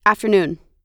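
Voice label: "afternoon", American English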